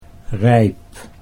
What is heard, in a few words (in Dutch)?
"rijp", Dutch